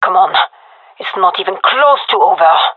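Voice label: round losing, female; vo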